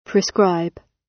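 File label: "prescribe", British English; Time, history; Thời gian, lịch sử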